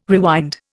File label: "rewind", Indian